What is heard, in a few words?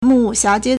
"mu4bu4xia2jie1", Chinese